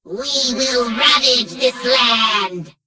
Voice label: Gnome Female Farewell; Death Knight